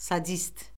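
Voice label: "sadist", Azerbaijani; Orfoqrafiya Lüğəti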